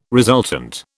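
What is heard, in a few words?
"resultant", British English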